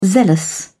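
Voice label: "zealous", British English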